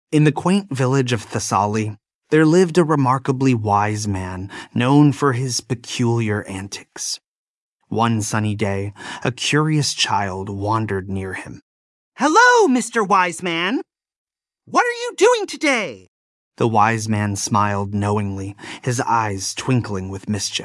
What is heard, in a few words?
male us; There Was a Man in Thessaly